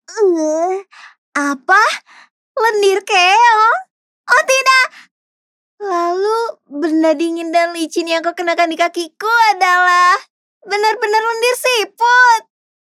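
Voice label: character Indonesia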